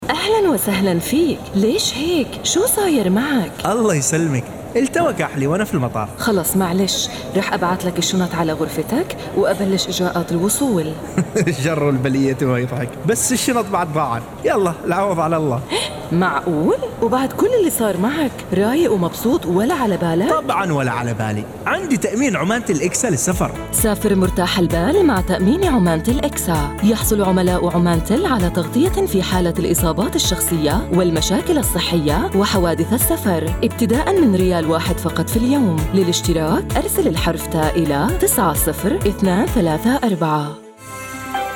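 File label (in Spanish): comercial, Argentina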